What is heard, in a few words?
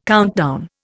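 "countdown", Indian English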